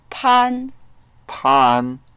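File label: "pan4", Mandarin Chinese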